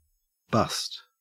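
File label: "bust", Australian English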